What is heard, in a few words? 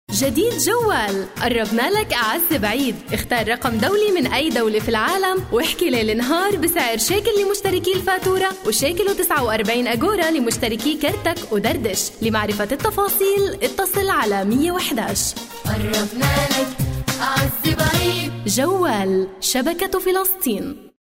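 Arabic, Saudi Arabia, female, voice over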